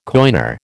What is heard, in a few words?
"coiner", American